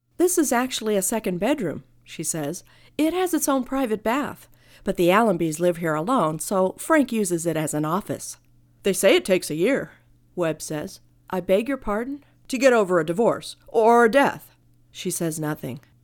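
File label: Audiobook Fiction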